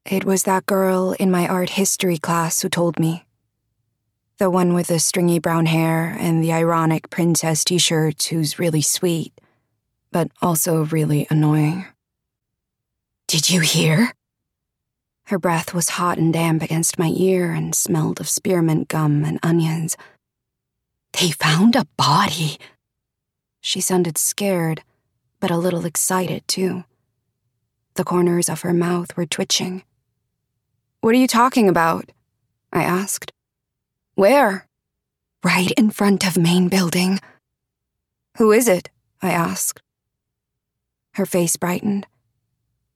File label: Thriller FF Dialogue 1st Person